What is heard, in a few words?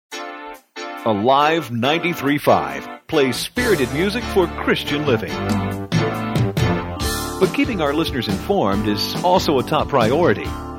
News Promo